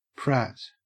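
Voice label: "prat", Australian English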